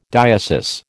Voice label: "diocese", American

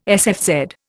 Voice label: "sfz", Indian

